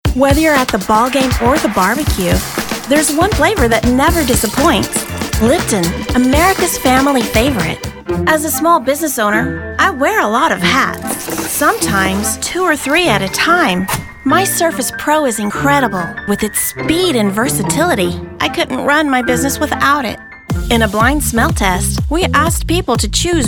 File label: Commercial